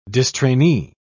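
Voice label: "distrainee", British English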